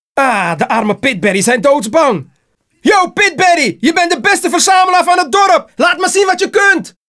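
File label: Bonus Dutch; arthur and the revenge of maltazard; wii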